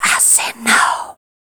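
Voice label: vocal female, Dance Vocals, spoken words; Best Service ProSamples vol.12; AKAI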